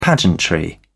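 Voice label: "pageantry", British English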